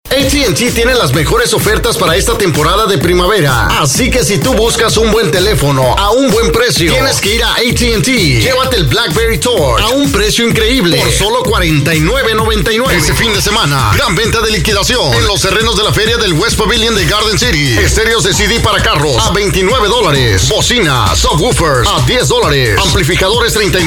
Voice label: Sample Spanish VO